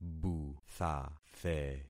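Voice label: "buthathe", concatenated syllables; Affixing experiment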